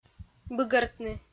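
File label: "быгӧртны", Komi, female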